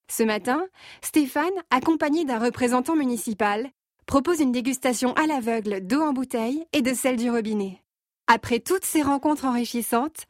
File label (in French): VF FILM INTERNE; Cheque Dejeuner